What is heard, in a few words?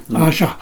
old man voice speaking; Stereo; soundscapes